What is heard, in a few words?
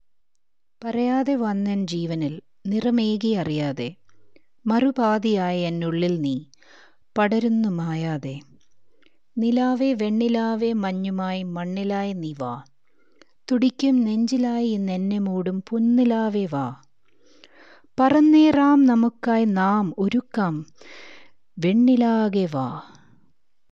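Malayalam, General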